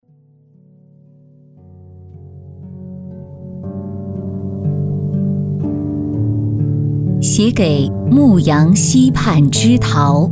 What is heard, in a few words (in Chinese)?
女 诗歌; 思想者之二